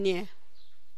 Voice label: "clean", tlacochahuaya